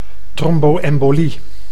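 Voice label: "trombo-embolie", Dutch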